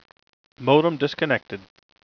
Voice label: Modem Disconnected (Male)

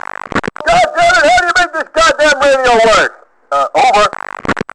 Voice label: chat

